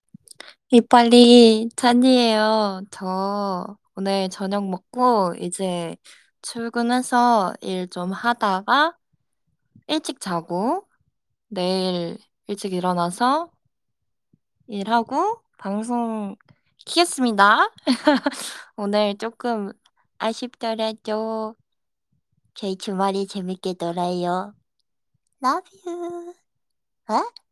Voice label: voice message